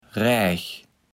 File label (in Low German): "raech", Platt